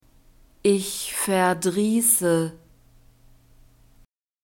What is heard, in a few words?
"ich verdriesse", German; verbs present tense irregular